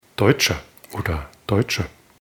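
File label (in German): "deutscher", German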